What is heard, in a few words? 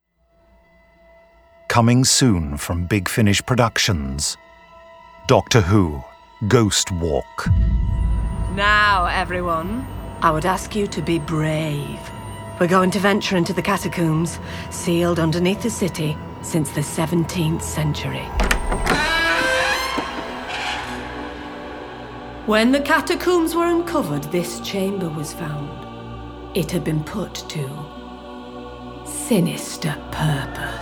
trailer; ghost walk